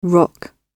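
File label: "rock", British English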